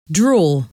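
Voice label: "draw", English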